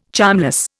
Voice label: "charmless", Indian